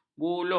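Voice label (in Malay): "buluh", Malay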